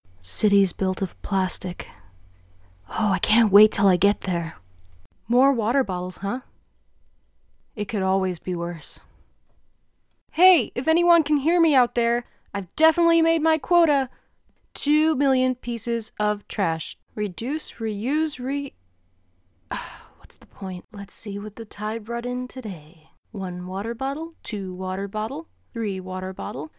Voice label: VO reel; Oceanside